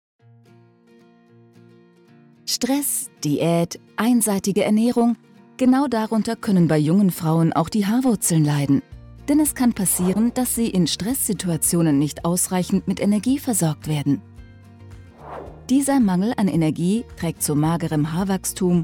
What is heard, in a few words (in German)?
Erklarfilm; Plantur21